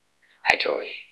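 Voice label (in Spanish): Voice Acting es F, Spanish, female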